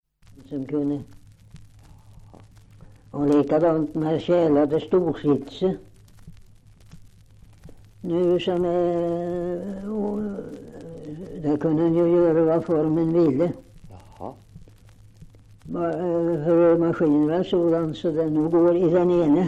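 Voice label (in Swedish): Oppeby Åtvid Östergötland, dialekter; Folkminnen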